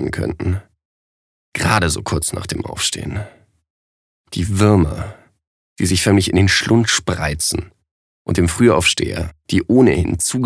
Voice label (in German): Der Fruehe Vogel Lebendig